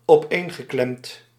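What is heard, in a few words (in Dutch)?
"opeengeklemd", Dutch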